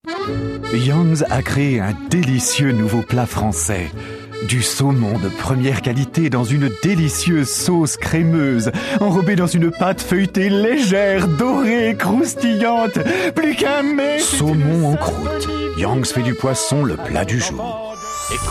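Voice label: French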